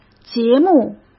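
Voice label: "节目", Mandarin Chinese; chinese-flashcards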